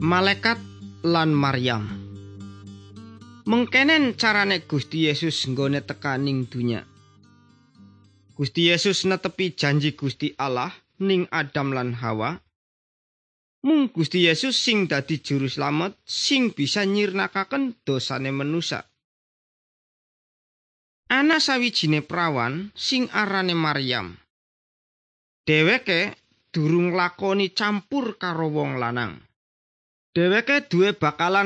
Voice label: good news jawa indramayu, javanese bible